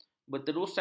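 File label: "berterusan", Malay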